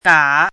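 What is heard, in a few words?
"gǎ", Chinese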